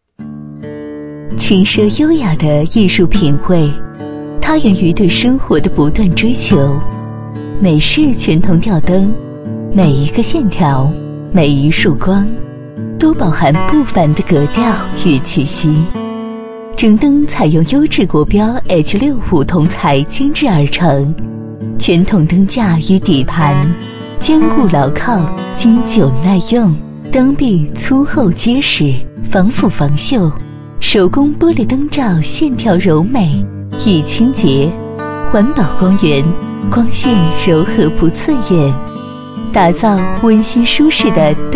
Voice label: 女 抒情 轻奢优雅的品味

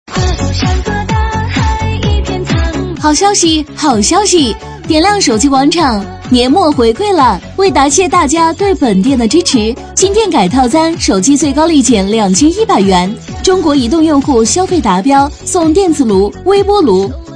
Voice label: 女38号促销; 亮点手机广场

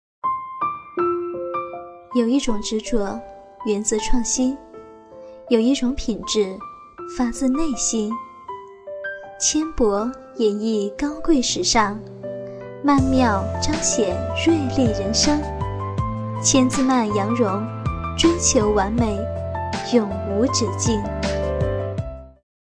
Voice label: 女 电台; 有一种执着

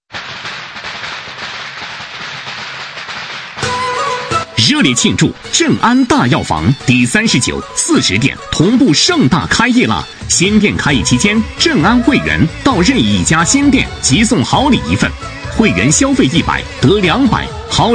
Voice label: 男 促销 大药房开业; 振安大药房

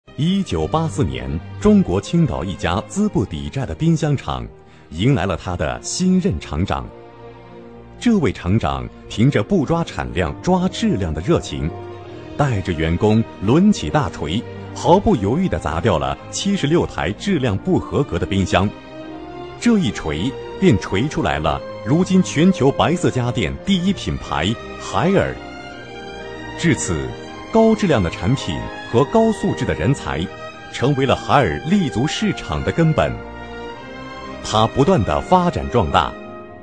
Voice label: 男29宣传; 海尔

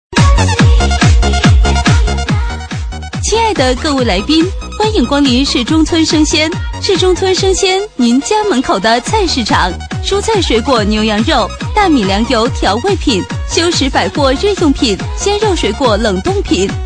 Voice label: 女 促销 生鲜, 促销女配; 市中村生鲜

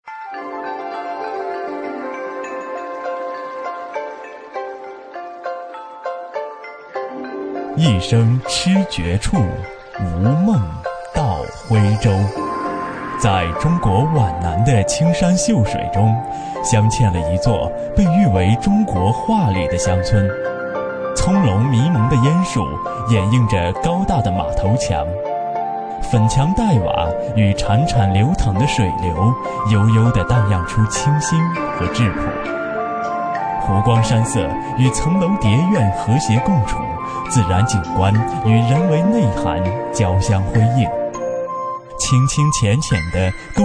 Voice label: 男 专题; 水墨徽州

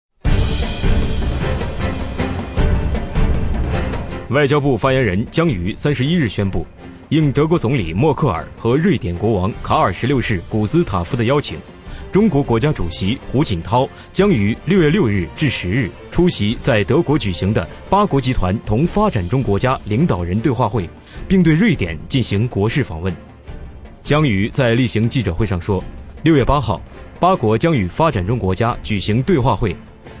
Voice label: 男66号新闻播报 时政新闻

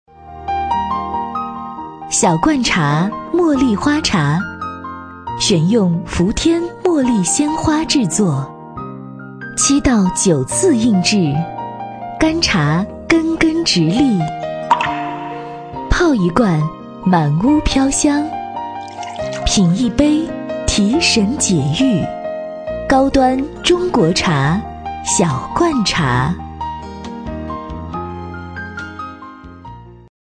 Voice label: 女4号品质广告; 小罐茶茉莉花茶

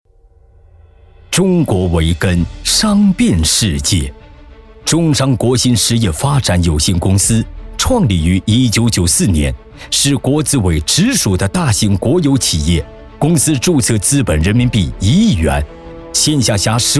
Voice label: 男 大气浑厚

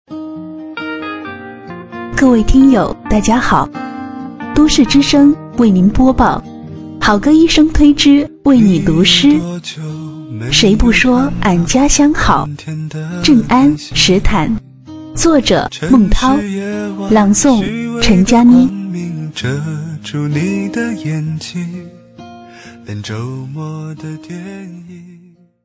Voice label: 女 电台; 都市之声电台节选

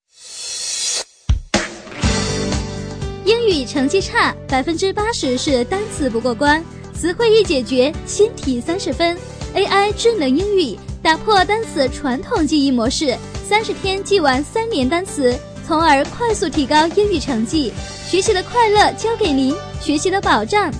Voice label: 女 广告 AI智能英语

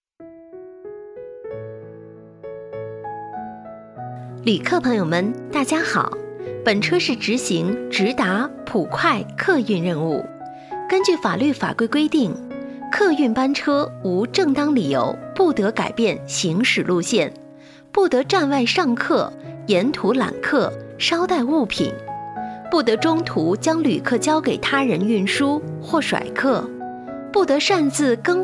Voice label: 抒情 客运车广播提醒, 女; 客运车广播提醒样音

